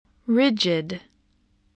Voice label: "rigid", English spelling

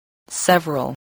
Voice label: "several", spelling

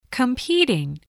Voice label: "competing", english

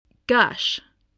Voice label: "gush", spelling

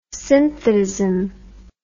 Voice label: "synthetism", English word

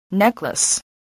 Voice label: "necklace", voice

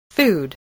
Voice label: "food", English voice, spelling

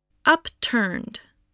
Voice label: "upturned", English

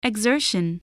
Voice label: "exertion", English

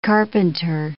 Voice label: "carpenter", English

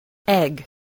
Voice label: "egg", English

